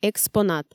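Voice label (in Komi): "экспонат", Komi, female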